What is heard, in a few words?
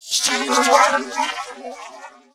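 effects talk, Funky Vocals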